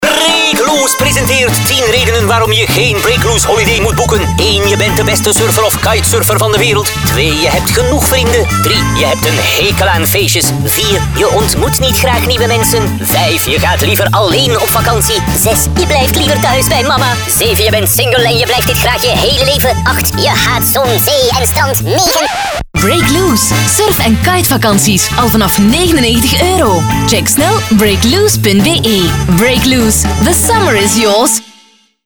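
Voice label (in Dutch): commercial, radiospot; breakloose 10 redenen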